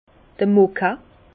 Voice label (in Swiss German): "moka", Bas Rhin